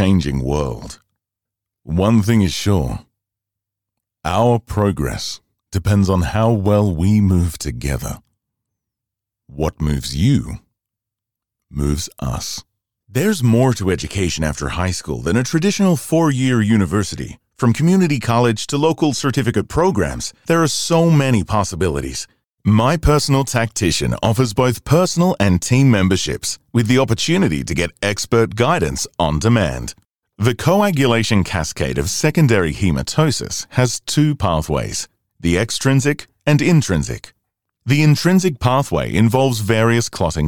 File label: Home Studio